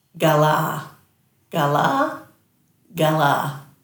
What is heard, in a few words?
"galaa", Dhurga